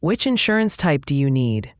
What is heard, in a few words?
question english